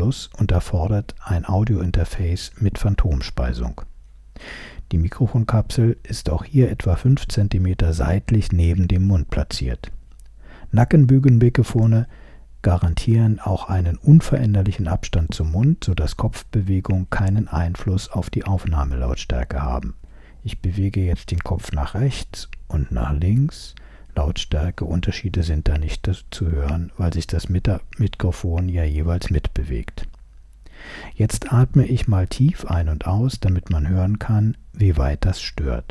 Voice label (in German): Mikrofontest t bone HC95